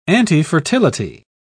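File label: "antifertility", British English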